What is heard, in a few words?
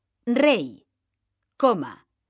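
"rey coma", Spanish, female; mekanta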